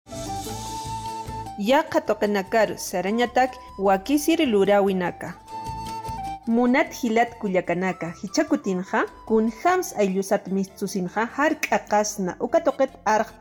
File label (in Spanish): aimara, spot de radio; prevencion en traslados fuera de la comunidad; precauciones al salir de mi comunidad